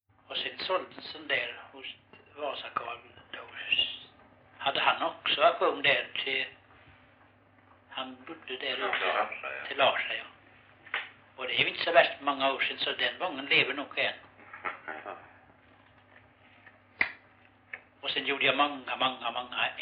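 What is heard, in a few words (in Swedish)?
Rolfstorp Halland, dialekter; Folkminnen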